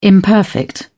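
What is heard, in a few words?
"imperfect", British English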